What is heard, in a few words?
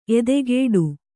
"ಎದೆಗೂಡು", Kannada